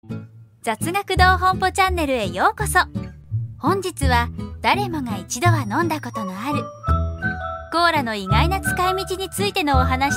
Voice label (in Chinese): 日语 女声